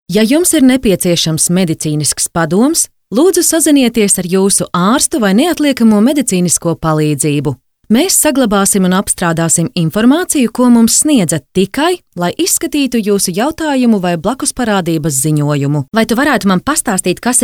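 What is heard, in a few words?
Latvian, female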